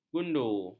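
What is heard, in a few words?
"gundu", Malay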